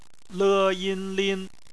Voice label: "lin", Chinese; phonetics